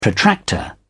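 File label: "protractor", British English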